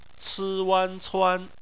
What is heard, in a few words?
"cuan", Chinese; phonetics; bpmf